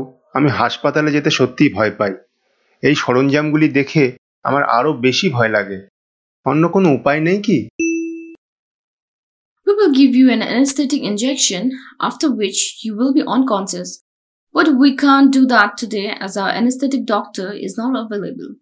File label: Health Endoscopy Bengali